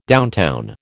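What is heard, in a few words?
"downtown", American English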